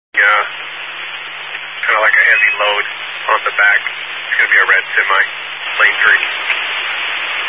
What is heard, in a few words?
Highway Patrol, Phoenix Metro West Area, scanner; DPS